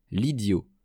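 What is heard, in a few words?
"lidiot", French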